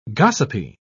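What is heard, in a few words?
"gossipy", English, British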